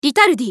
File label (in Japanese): ウィザード女; リタルディ